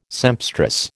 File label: "sempstress", American